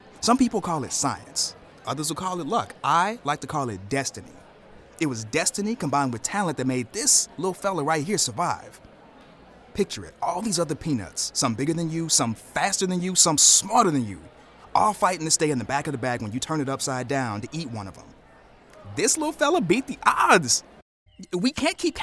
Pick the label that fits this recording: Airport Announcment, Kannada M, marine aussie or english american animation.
english american animation